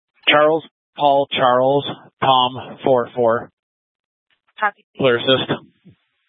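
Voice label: Incident Tactical, scanner audio recording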